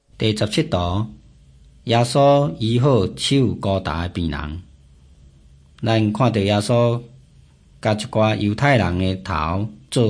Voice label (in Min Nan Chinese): taiwanese, drama